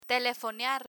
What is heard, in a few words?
"telefonear", Spanish